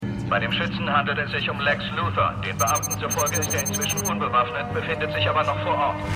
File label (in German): Nachrichtensprecher; Supergirl